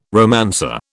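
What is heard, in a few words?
"romancer", British English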